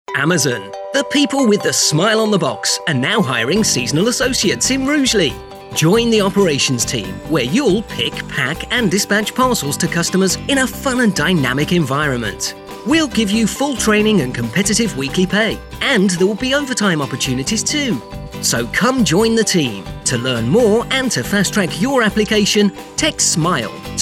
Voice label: uk voiceover